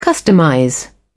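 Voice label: "customize", British English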